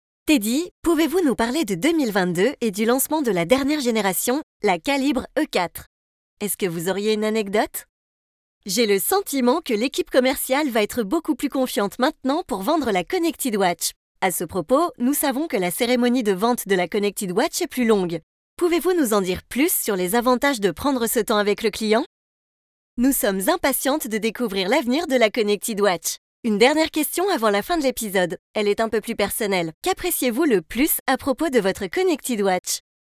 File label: E Learning, voix off e learning; voix off e-learning serious game; Connected Watch